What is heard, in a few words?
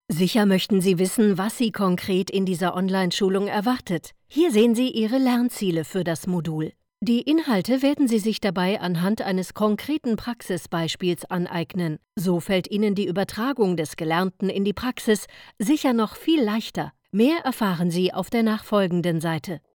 e-learning; LOFT VOICES